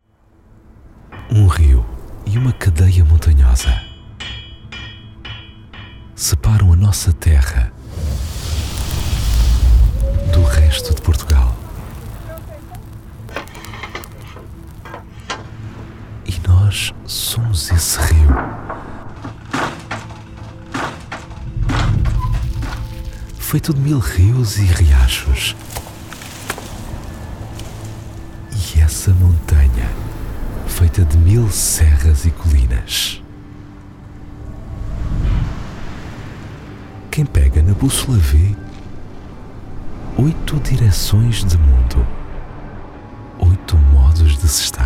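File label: Portuguese, male, warm narration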